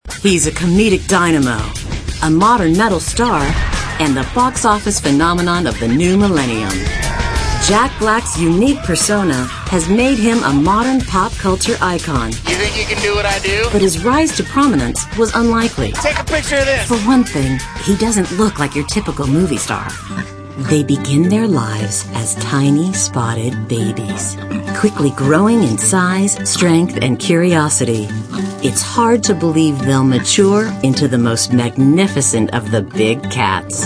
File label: female narration